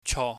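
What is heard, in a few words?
"cho", Cantonese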